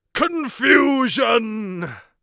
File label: confusion, Mage Voice Pack